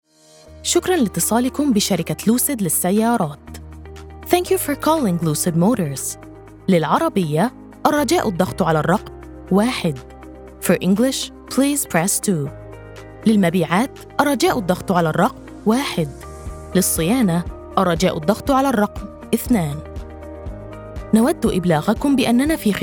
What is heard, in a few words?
IVR Arabic Language; IVR-Lucid Motors